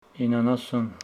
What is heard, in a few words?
Homshetsi ninety